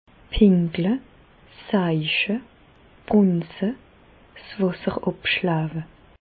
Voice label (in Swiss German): "pinkle", Bas Rhin; medecine; uriner